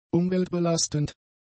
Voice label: "umweltbelastend", German; adjectives